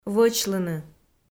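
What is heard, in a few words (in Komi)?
"вӧчлыны", Komi, female; audio dict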